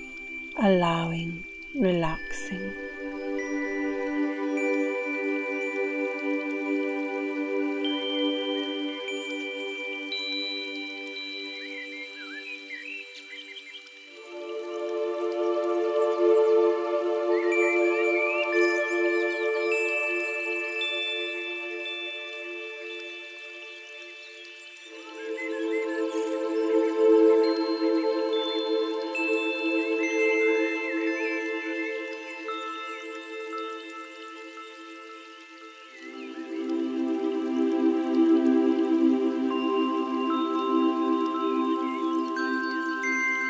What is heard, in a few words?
Deep Healing Meditation; Monthly Meditation